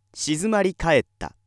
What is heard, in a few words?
male